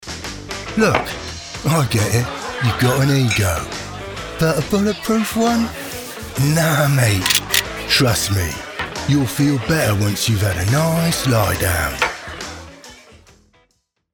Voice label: Street Thug / Sharp, Confident, Sarcastic, Intimidating; Character Animation Demo; HENCHMAN